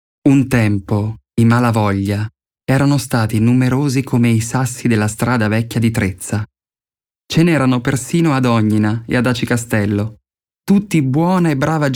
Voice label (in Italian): Descrittivo